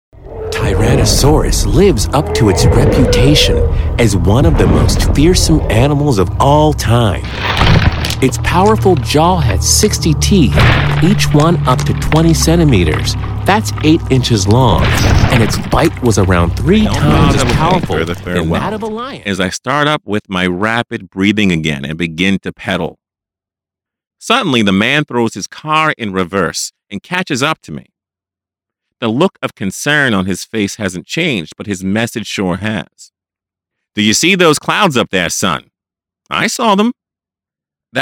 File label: Narration